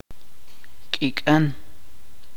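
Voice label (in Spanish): "mañana", chuj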